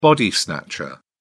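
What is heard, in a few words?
"body snatcher", British English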